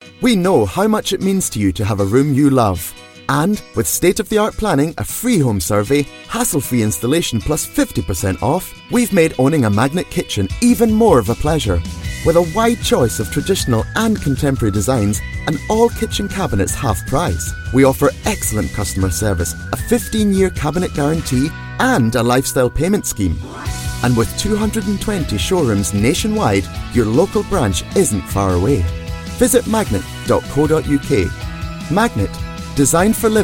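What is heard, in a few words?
Scottish Gaelic Accented English Advertisement; GoLocalise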